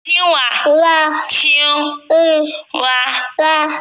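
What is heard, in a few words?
"qing wa", Mandarin Chinese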